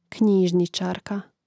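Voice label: "knjižničarka", Croatian; Mrežnik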